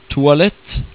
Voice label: "Toalett", Norwegian

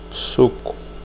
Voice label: juice, portuguese

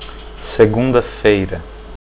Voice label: monday, portuguese

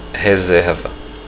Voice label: reservation, portuguese

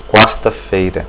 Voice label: wednesday, portuguese

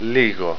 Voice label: A little, Greek

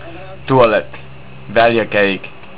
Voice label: "Restrooms", English; Tualett, Väljakäik; Estonian

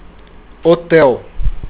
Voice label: hotel, portuguese

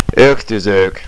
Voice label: dinner, estonian

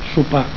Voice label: "sopar", Catalan

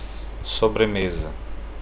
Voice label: dessert, portuguese

